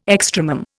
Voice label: "extremum", Indian